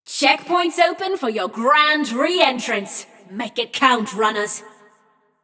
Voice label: Checkpoint Opened, Announcer; Flux; Scraprun